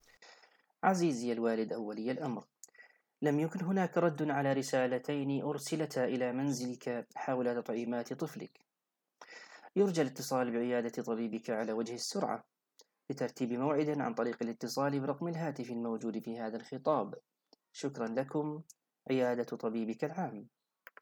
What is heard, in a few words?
Arabic